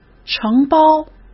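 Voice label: "承包", Mandarin Chinese; chinese-flashcards; hsk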